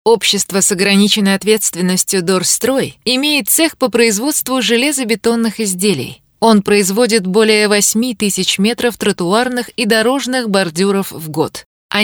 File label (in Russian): фрагмент закадрового